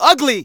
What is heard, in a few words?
Narration